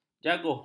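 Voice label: "jaguh", Malay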